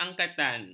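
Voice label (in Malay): "angkatan", Malay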